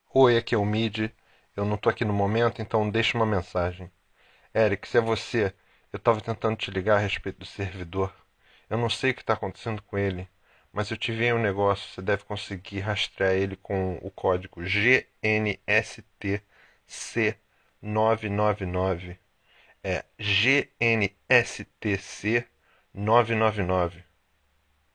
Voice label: voicemail